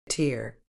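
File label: "tear", British English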